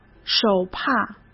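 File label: "手帕", Mandarin Chinese; chinese-flashcards; hsk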